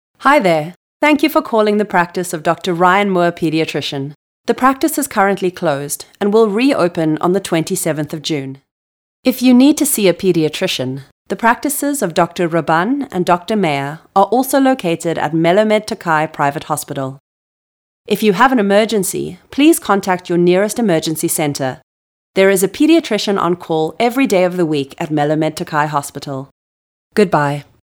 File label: SA, voiceovers